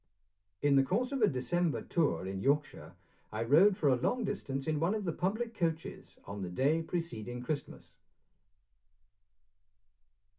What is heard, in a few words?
male speech english